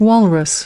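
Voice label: "walrus", English word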